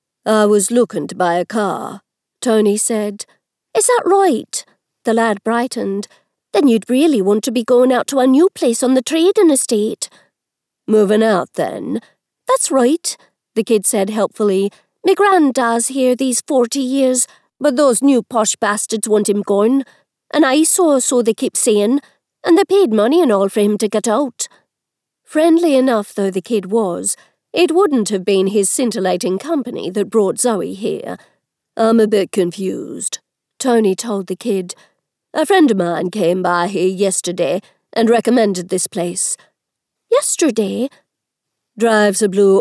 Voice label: Irish Generic Northern; In The Blood